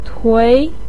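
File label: "tui", Mandarin pinyin, second tone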